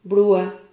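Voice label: "brua", Spanish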